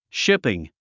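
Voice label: "shipping", English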